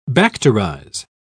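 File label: "bacterize", British English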